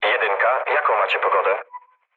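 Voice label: Polish chat; War Thunder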